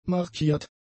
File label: "markiert", German; adjectives